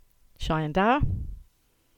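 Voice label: "Chyandour", English; place-names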